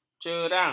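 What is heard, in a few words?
"cerang", Malay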